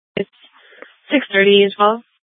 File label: Police Dispatch, scanner